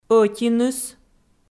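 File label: "ӧтиныс" Komi, female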